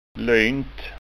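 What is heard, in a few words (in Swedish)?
"lynt", Swedish, Skåne dialect; Roligt; Morsomt; Funny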